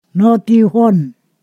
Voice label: "slept already", Ponosakan